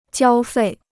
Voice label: "交费", Chinese

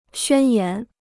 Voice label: "宣言", Chinese

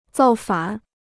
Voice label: "造反", Chinese

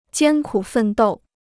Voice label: "艰苦奋斗", Chinese

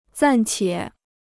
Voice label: "暂且", Chinese

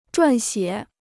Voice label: "撰写", Chinese

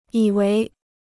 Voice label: "以为", Chinese

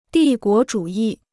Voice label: "帝国主义", Chinese

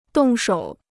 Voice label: "动手", Chinese

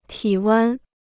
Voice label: "体温", Chinese

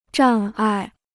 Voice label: "障碍", Chinese

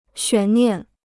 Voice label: "悬念", Chinese

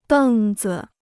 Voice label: "凳子", Chinese

